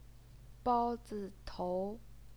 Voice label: "bao1zi0tou2", Chinese pinyin